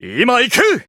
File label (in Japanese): VO jp